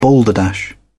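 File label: "balderdash", British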